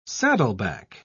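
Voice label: "saddleback", English, British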